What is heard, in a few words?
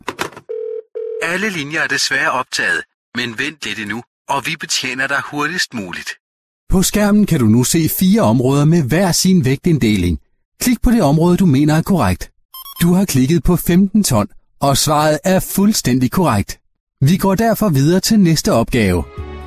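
Danish, male, corporate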